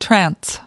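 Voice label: "trance", US English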